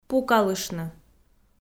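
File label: "пукалыштны", Komi, female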